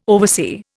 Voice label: "oversee", Indian

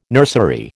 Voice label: "nursery", American

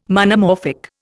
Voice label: "Monomorphic", Indian

